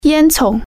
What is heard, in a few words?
"chimney", Chinese